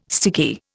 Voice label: "sticky", Indian